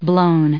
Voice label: "blown", us